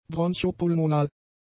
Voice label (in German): "bronchopulmonal", German; adjectives